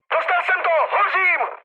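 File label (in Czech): Czech on fire, mood medium; speech; War Thunder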